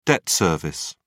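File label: "debt service", English, British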